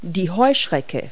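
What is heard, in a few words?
"grasshopper", English word; Multi-Lingual Talking Picture Dictionary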